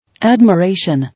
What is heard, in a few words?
"Admiration", English